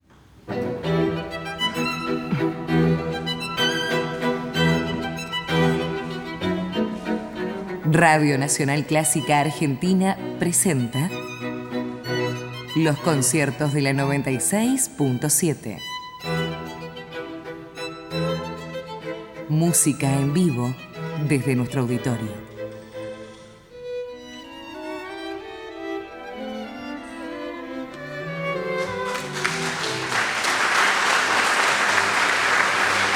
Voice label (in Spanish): locutora